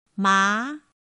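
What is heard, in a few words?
"má", Chinese; pinyin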